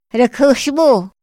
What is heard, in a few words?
"ra kjaxmo", Otomí; INPI; INALI